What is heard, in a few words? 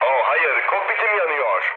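Turkish on fire, mood low, speech; War Thunder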